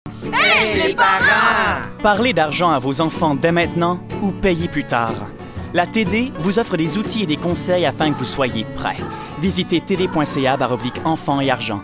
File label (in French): Annonceur